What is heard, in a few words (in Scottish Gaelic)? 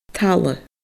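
"talla", Scottish Gaelic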